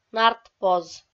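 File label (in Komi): "нартпоз" Komi, female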